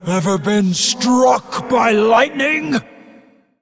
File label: Female Elemental Play